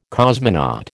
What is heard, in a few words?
"cosmonaut", American